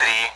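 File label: Speech, Russian, AWACS; Digits; 3-continue; DCS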